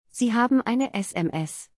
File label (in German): sprechende klingeltone ringtone